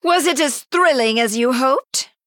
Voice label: Female High Elf Emote General; Bacon Shop Bob